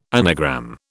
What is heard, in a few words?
"anagram", British